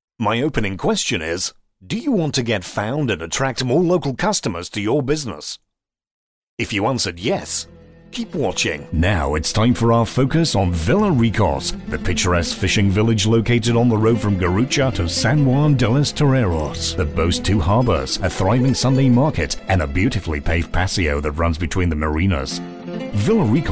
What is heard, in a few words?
narration